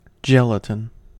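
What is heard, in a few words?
"gelatin", American English; pronunciations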